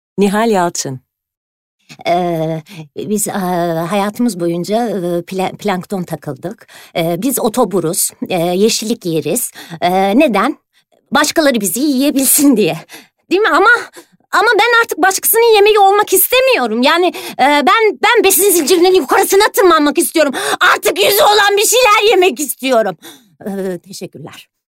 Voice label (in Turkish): animasyon